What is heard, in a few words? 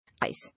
"Ice", English